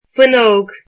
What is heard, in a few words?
"window", Irish